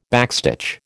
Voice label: "backstitch", American